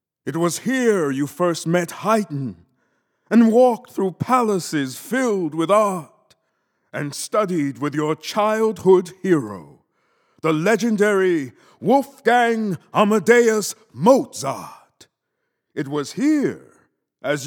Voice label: narration